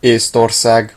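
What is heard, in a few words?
"estonia", Hungarian; gcompris; geography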